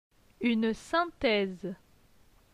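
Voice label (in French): "Une synthèse", French; phonetique; les voyelles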